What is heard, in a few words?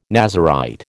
"Nazarite", American